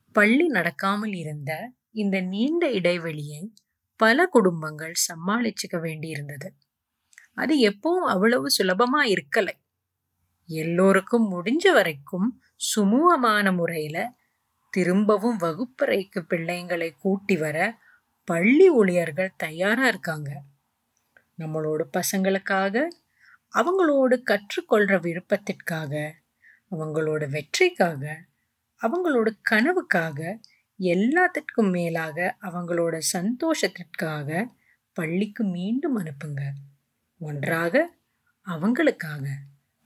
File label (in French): Message TAMOUL